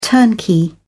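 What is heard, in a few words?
"turnkey", British English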